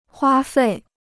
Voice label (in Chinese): "花费", Chinese